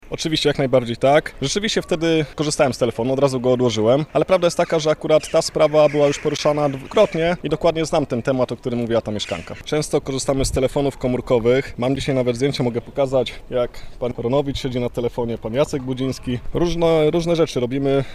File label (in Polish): telefon